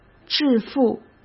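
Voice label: "致富", Mandarin Chinese; hsk; chinese-flashcards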